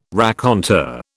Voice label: "raconteur", British